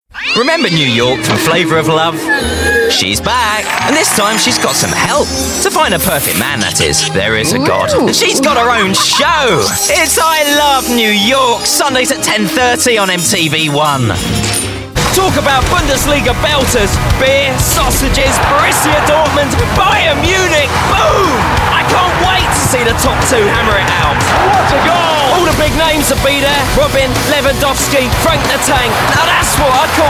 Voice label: UK English High Energy Promos